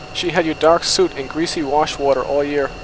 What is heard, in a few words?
Noisy Speech F16 Noise